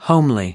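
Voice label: "homely", American English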